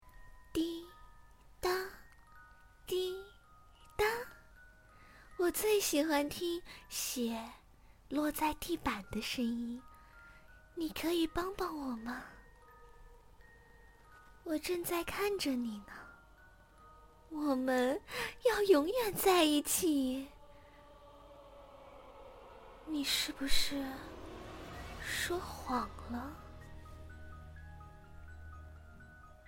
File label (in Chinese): 病娇